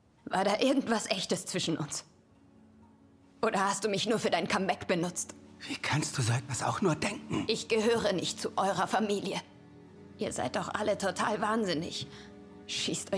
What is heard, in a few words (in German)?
PALOMA (Auszug) - emotional